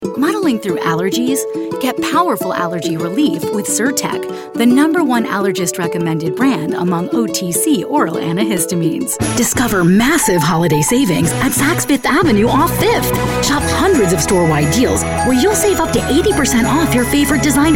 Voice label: Voice Talent Commercial